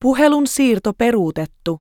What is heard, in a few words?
Call Forward Cancelled, finnish